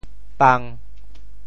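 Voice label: "pang1", Teochew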